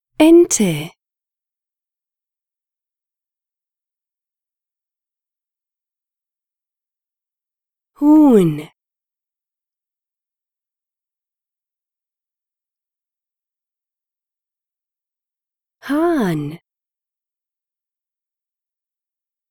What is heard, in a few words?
animals german